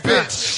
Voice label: "bitch", expletives

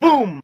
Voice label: "boom", Voice; ooh ahh

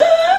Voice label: "hoo"; Voice; ooh ahh

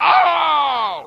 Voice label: ow male

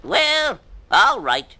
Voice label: well all right cartoon

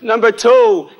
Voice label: number two male; Voice; numbers and letters

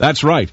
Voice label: that's right announcer; Voice

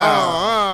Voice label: "uhuhuh", Voice; ooh ahh; uh um

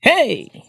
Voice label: "hey", voice

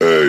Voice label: "ay", voice

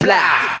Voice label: "blaow", Voice

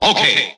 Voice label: okay announcer

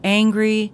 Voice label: angry

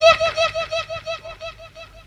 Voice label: "yeah" cartoon, voice

